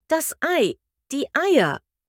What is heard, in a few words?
egg in german